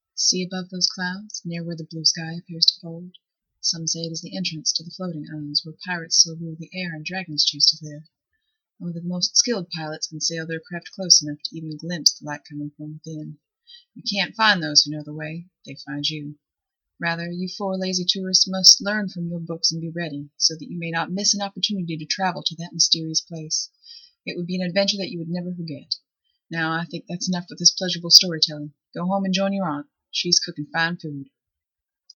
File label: my dumb accent